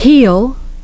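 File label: "heel", English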